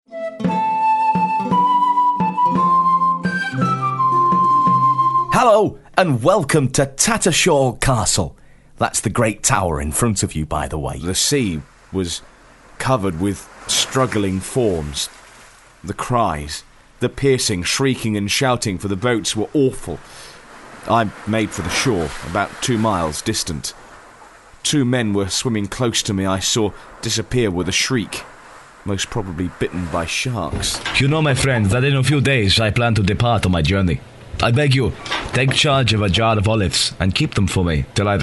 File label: character demo